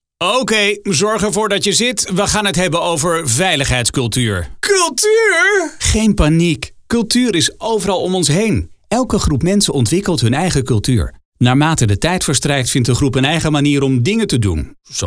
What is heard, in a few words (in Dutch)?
voiceover informatief over the top vrolijk geanimeerd; icsi